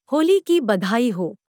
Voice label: Hindi Happy Holi; Greetings